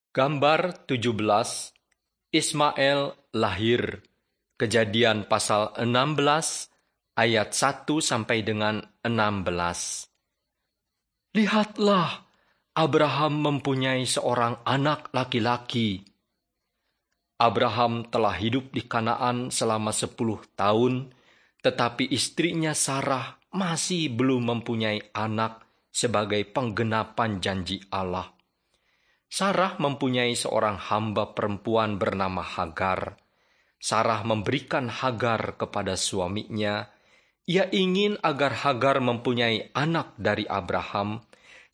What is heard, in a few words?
bahasa indonesia; beginning with god